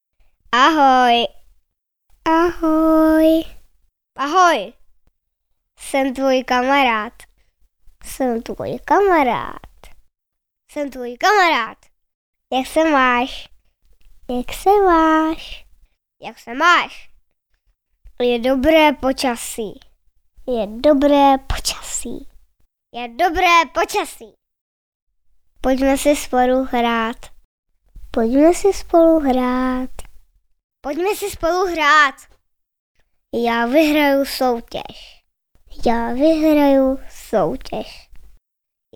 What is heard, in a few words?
vox cz child